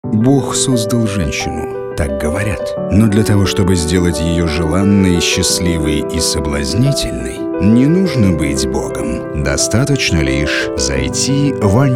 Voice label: ролик магазина женского белья; АННЕБРА

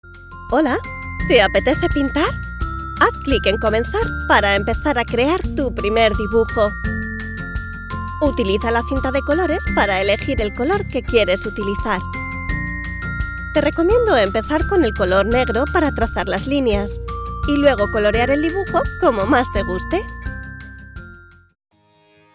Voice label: Женский испанский

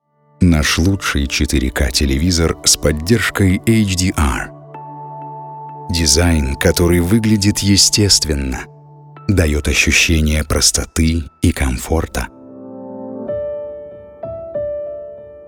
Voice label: аудиореклама телевизора диктор; SONY; запись голоса монтаж сведение ролика

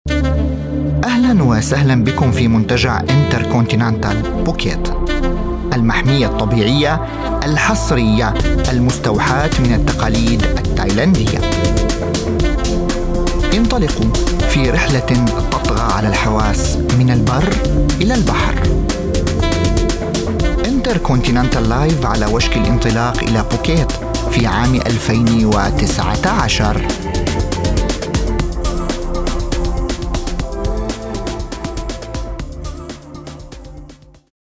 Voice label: Мужской арабский

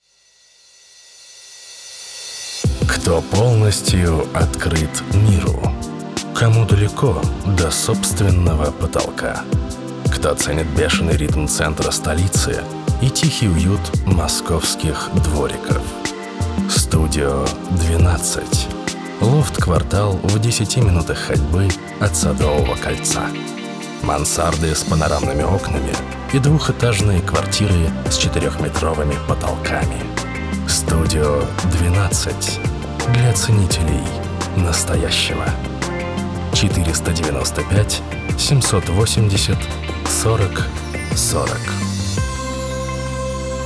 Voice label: реклама

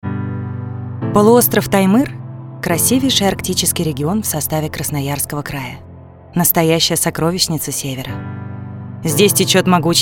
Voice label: док фильм ЗАКАДР

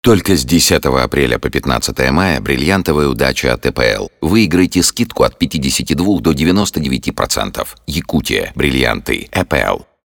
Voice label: аудиореклама диктор; ЭПЛ ЯКУТСКИЕ БРИЛЛИАНТЫ; запись голоса монтаж сведение ролика

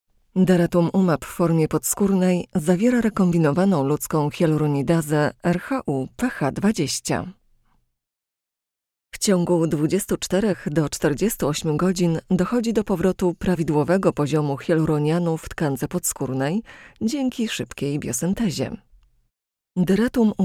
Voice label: Женский польский

